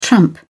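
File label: "tramp", British English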